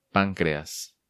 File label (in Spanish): "Pancreas", Spanish